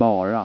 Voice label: bara, Swedish

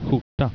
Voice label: skjorta, Swedish

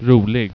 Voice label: rolig, Swedish

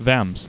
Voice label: "vems", Swedish

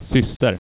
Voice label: syster, Swedish

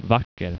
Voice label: "vacker", Swedish

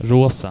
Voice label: rosa, Swedish

